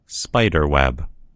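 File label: "spider web", American English; gcompris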